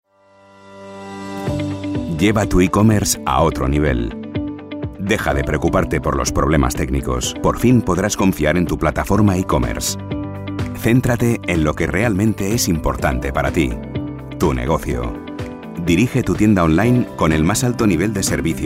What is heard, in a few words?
male spanish ecomerce comercial; ideasonora